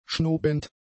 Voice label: "schnobend", German; adjectives